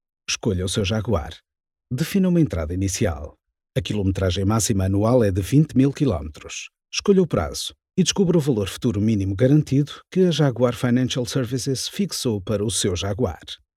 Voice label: Studio RAW sample